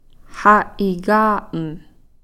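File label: "seven", Takelma word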